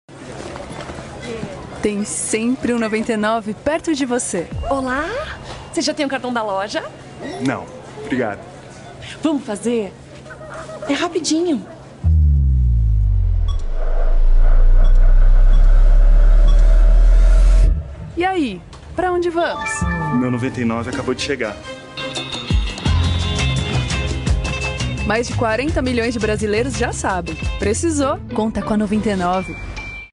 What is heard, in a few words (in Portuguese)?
Loc Conversada Natural Descontraida; 99App